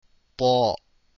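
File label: toa, arabic letters; deen path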